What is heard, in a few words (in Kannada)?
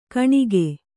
"ಕುನಿಕೆ", Kannada